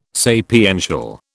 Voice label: "sapiential", British